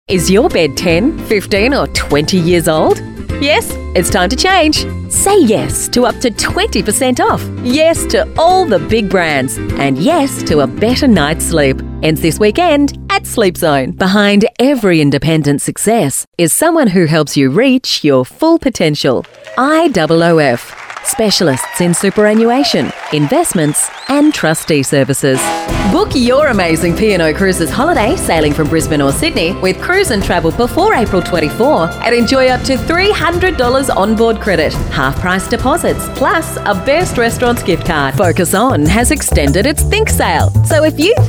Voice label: female talent retail